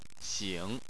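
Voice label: "xing", Chinese, third tone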